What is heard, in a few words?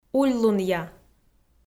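"ульлунъя", Komi, female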